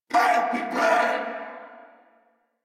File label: Reload Corpus Venus Male; Warframe; Battle Barks